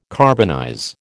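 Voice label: "carbonize", American English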